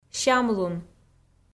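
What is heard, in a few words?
"сямлун" Komi, female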